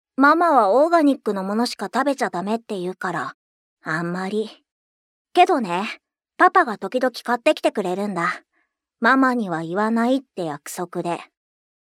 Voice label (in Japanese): 少年